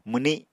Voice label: "mwni", Apatani